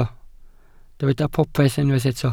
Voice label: "popeth", Welsh

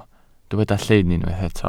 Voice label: "llyn", Welsh

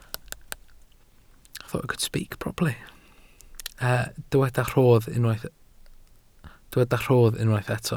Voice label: "rodd", Welsh

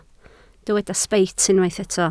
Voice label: "sbeit", Welsh

